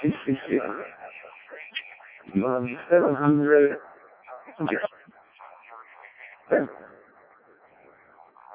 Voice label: decoded speech, parrot